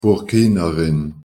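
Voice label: pronunciation Luxembourgish "burkinerin"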